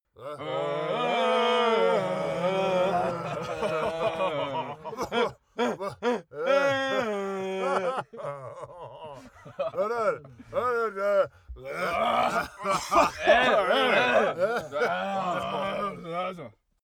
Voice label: talk loud